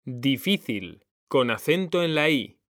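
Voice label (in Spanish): "difícil", Spanish; mekanta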